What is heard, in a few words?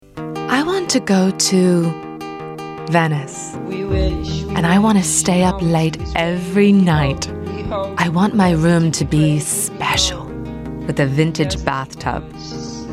languages women british